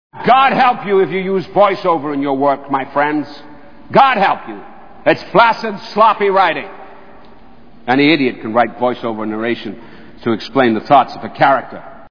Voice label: voice-over; Adaptation; Brian Cox: "God help you if you use voice-over in your work, my friends. God help you. It's flaccid, sloppy writing. Any idiot can write